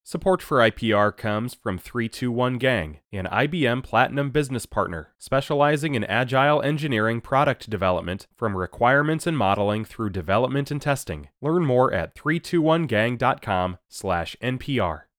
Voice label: Radio Ad; IBM; 321 GANG; NPR